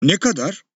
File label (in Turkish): "Ne kadar", Turkish; turkish phrases for tourists